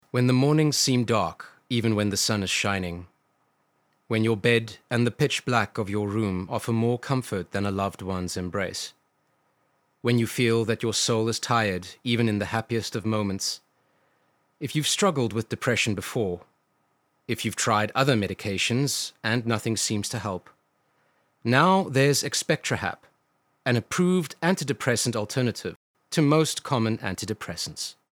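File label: Afrikaans English Male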